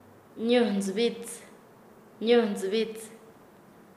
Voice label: Nasa Yuwe; punta de la aguja; paez